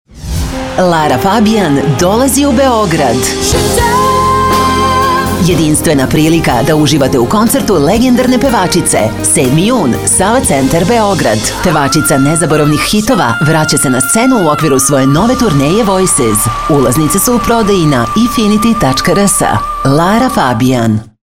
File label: Radio Commercials, Serbian, Female Voice